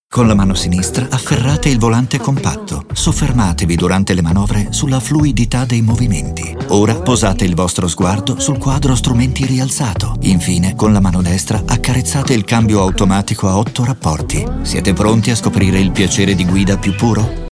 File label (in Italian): radio, spot; Radio PEUGEOT; innamoramento